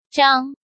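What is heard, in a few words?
"Zhāng", Chinese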